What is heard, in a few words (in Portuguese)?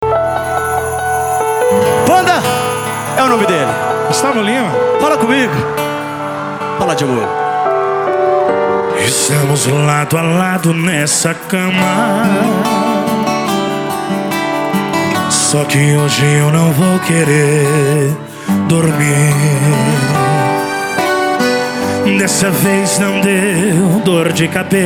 locutor a voz magnifica